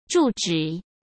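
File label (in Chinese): "住址", Mandarin Chinese; chinese flashcards; hsk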